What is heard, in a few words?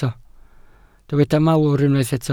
"malwr", Welsh